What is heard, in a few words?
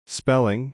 "spelling", English